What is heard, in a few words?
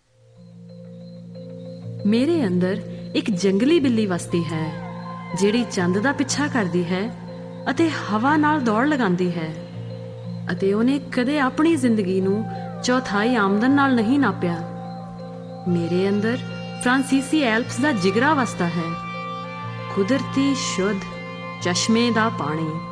Punjabi, VO; translink